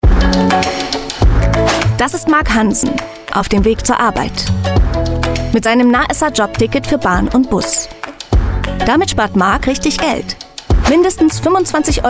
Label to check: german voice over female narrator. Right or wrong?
Right